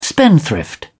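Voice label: "spendthrift", English, British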